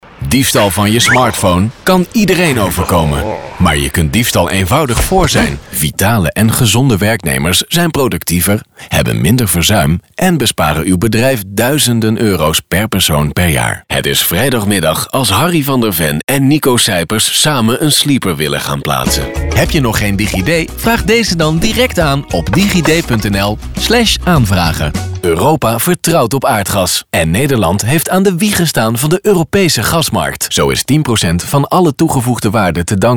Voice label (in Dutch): stemdemo INFORMATIEF